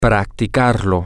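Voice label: to Practice It masculine, Spanish, male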